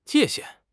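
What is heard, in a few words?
"界限", Mandarin Chinese; HSK6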